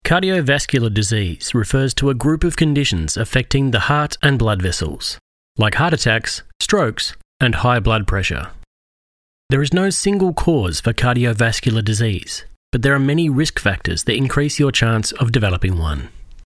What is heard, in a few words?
Medical Narrations / Cardio Medical Heart, Natural Male Voice; Mining Health